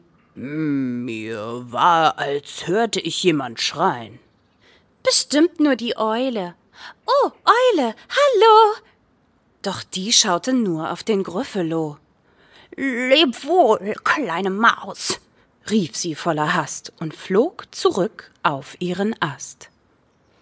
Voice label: verschiedene Stimmen

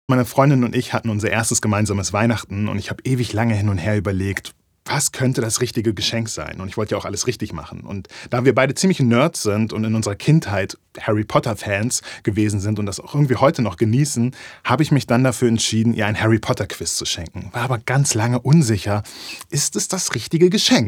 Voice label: Natuerliche Stimme

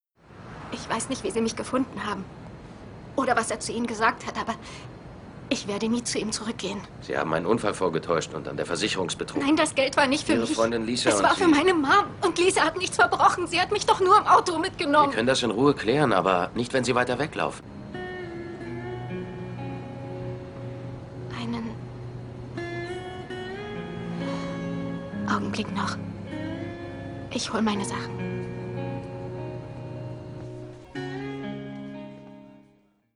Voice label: Synchron; Memphis Beat

